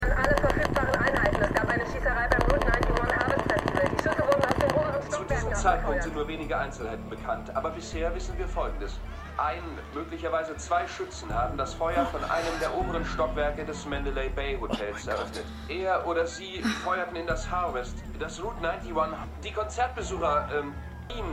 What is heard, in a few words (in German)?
Funkstimme F Nachrichtensprecher; The Morning Show; THE MORNING SHOW (DF 2019) (The Morning Show, USA 2019)